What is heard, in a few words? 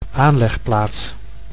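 "aanlegplaats", Dutch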